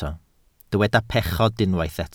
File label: "pechod", Welsh